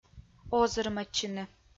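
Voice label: "озырмӧдчыны", Komi, female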